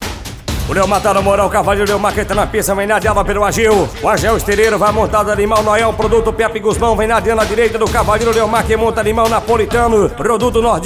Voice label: Locutor

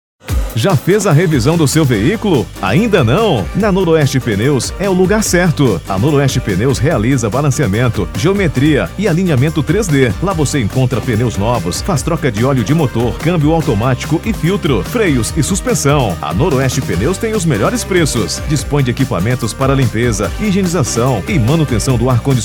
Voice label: locutor

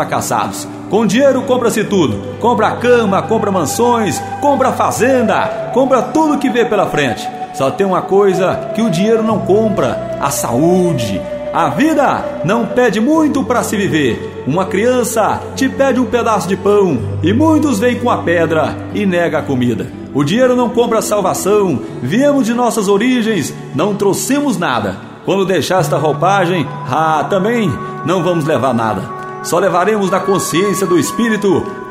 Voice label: falar com a humanidade locução

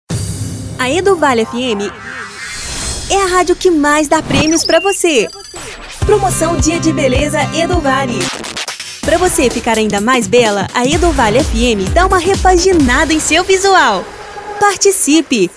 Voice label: vinhetas voz locutora fm; eduvale fm

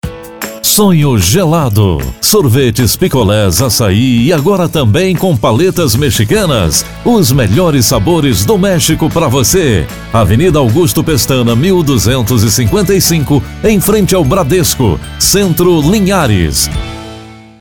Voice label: VOZ IMPACTO GRAVE vinheta para sorveteria vinhetas spot chamada comercial propaganda; anuncio

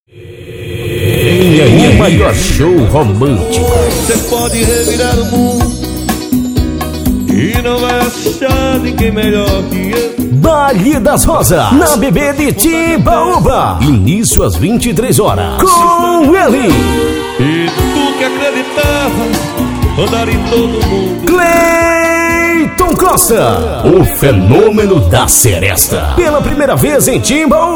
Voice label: locutor chamada mix

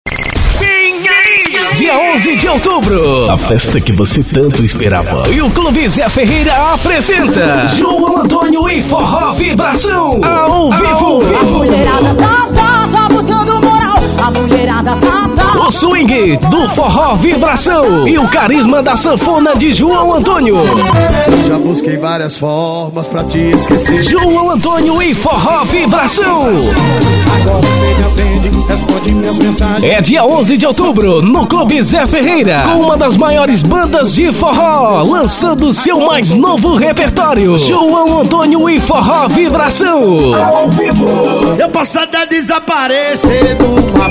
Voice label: offs locução

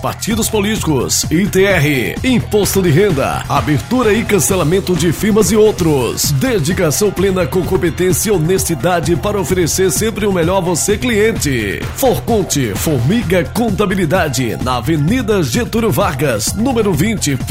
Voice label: locutor comercial; forcont

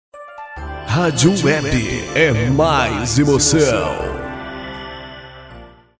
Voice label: locutor

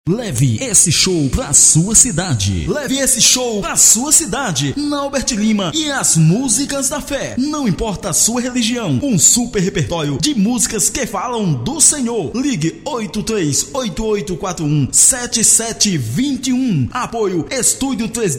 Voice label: vinheta contato para shows

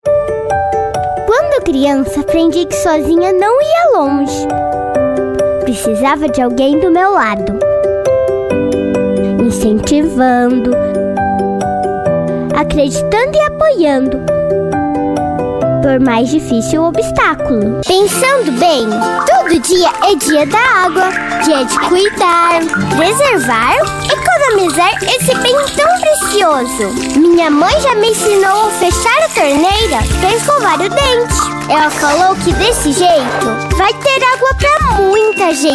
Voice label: locutora

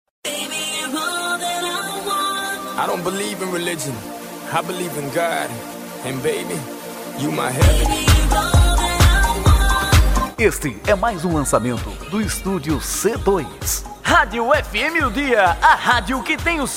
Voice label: chamadas de radios; studio c2

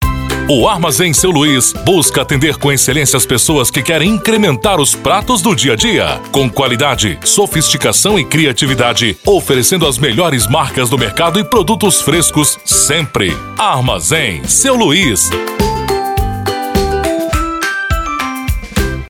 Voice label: VOZ IMPACTO GRAVE vinheta; para armazem armazem; spot chamada comercial propaganda anuncio

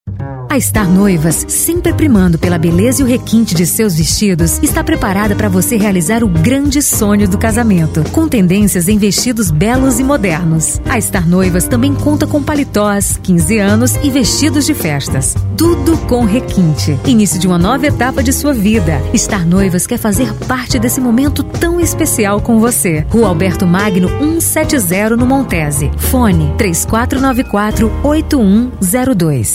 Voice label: locutora

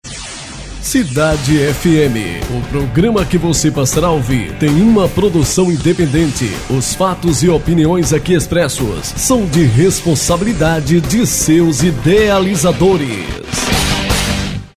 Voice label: vinheta nova abertura de programa

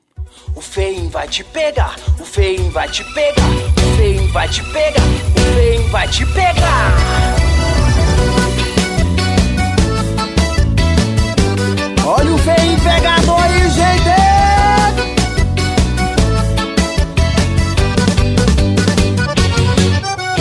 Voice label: locutor, vinheta

